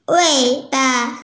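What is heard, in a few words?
"tail", hearing impaired; wei3ba5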